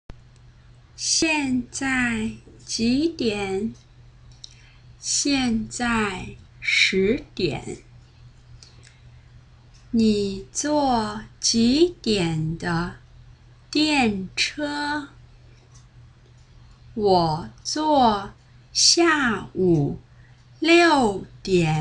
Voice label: huihua, chinese

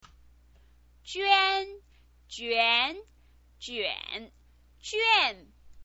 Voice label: "juan", chinese pinyin

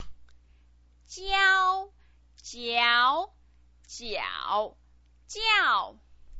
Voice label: "jiao", Chinese pinyin

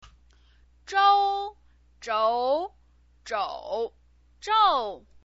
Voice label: "zhou", Chinese pinyin voice

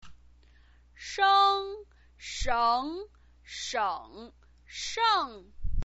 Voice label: "sheng", chinese pinyin

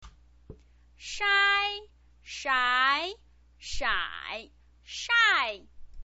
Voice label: "shai", chinese pinyin

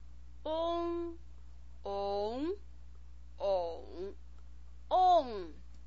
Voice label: "ong", Chinese pinyin

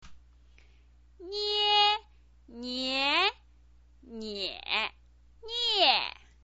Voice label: "nie", chinese pinyin

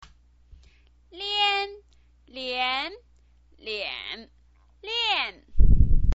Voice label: "lian", Chinese pinyin voice

